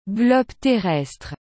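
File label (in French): "globe terrestre", French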